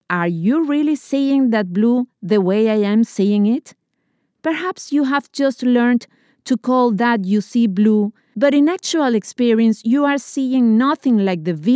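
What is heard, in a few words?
Spanish, English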